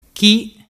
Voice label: "chi", dialettu

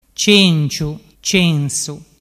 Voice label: "cenciu censu", dialettu

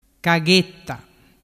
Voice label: "caghetta", dialettu

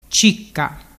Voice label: "cicca", dialettu

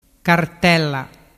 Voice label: "cartella", dialettu

